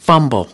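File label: "fumble", English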